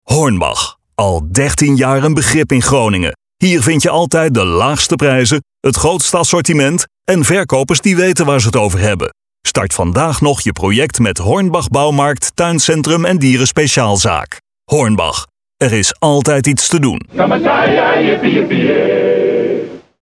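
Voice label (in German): Dutch werbung, voicesamples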